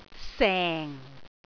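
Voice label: "seng4", Cantonese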